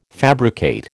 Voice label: "fabricate", American

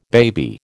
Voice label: "baby", American

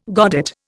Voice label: "godet", Indian

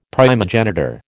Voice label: "primogenitor", American

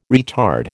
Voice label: "retard", American

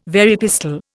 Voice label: "Very pistol", Indian

